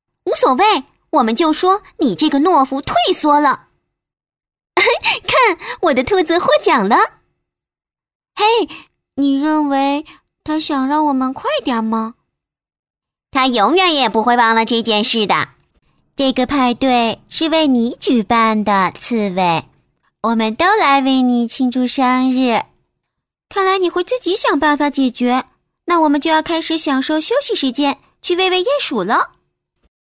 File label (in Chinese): 动画 角色 多角色女孩子们